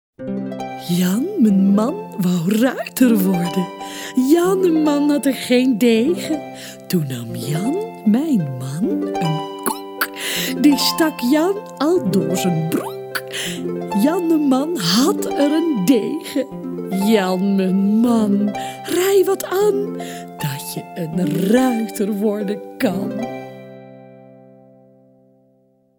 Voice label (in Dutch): Jan, mijn man, wou ruiter worden, Rijmpjes en versjes voorgelezen